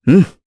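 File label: Vox, voices, Japanese; Pavel; kings raid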